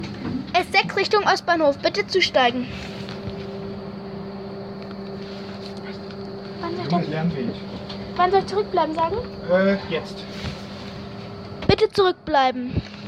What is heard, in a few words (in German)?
Durchsage